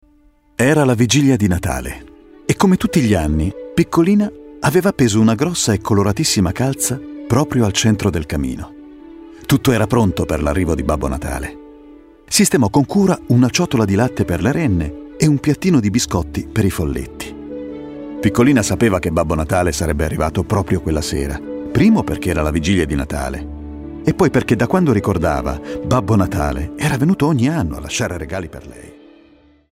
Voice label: male Italian tv comercial; ideasonora